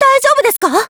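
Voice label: DIALOGUE, Japanese; BB Noel 503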